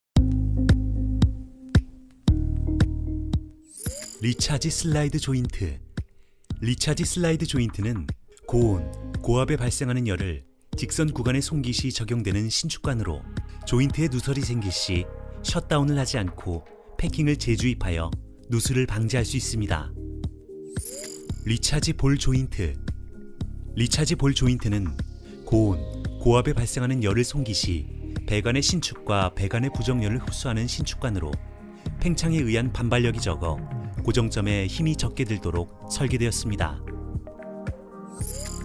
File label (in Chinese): 产品介绍 动感磁性